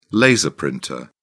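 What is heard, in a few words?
"laser printer", British English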